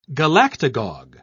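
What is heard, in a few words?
"galactagogue", British English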